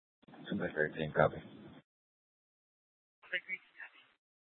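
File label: police dispatch, scanner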